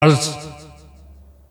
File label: failure, announcer; tf2cciv; open fortress